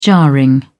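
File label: "jarring", British English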